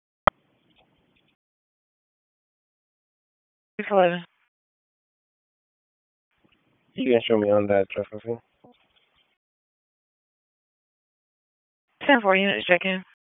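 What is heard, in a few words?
Police Southwest District Dispatch, p25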